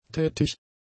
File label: "tätig", German; adjectives